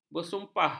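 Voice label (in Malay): "bersumpah", Malay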